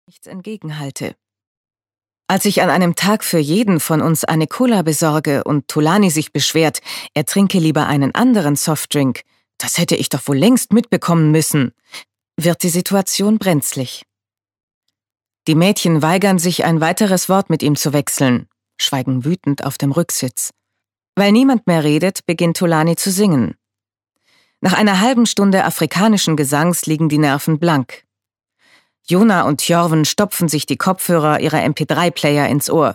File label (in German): Hörbuch Ausschnitt